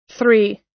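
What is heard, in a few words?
"three", American English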